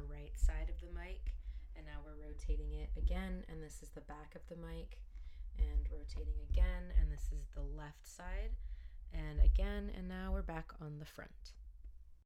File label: Roccat Torch stereo speaking microphone sample